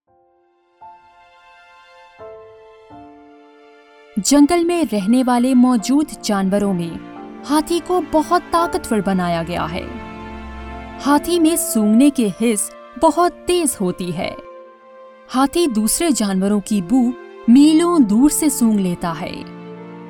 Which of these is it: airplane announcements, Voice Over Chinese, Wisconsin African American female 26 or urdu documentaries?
urdu documentaries